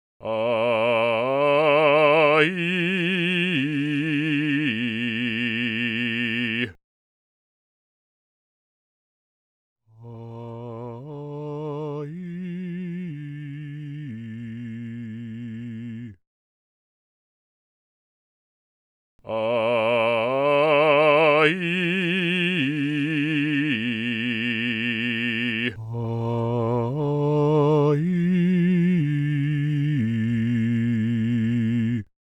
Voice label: Vocal Effort Spectral Tilt